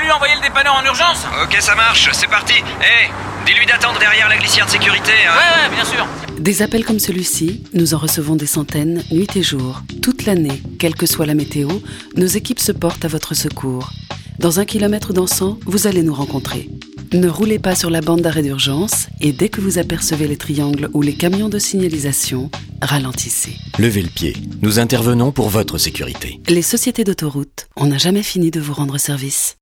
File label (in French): spot radio panne